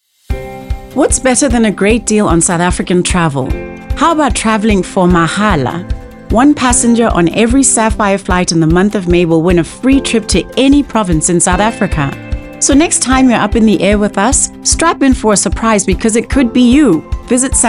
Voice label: Conversational Promo; Airline Competition